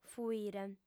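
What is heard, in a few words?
"fuire", Sardinian, female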